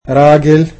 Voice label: "man", egyptian